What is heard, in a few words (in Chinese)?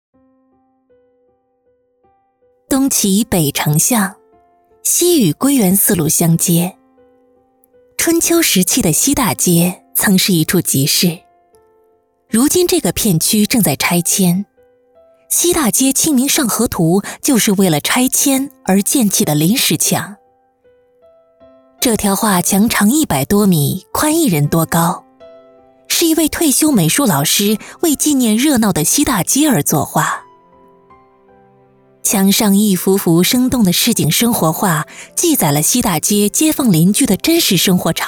专题片风景解说